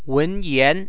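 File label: "wenyan", Chinese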